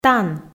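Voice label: "тан", Komi, female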